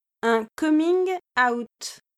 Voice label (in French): "un coming out", French